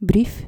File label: "brief" dutch